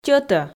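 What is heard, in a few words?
"тьӧтӧ", Komi, female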